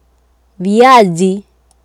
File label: "viazi", Swahili